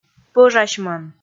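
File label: "пӧжасьмӧн", Komi, female; kpv audio dict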